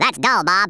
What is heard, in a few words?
boring, US Sports, Speech